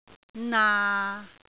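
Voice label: "naa6", Cantonese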